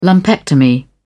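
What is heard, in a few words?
"lumpectomy", British English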